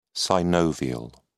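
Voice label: "synovial", British English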